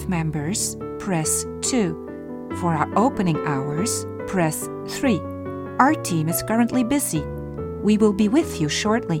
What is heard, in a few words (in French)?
Museum EN avec musique